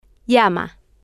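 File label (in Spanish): "llama", Spanish, female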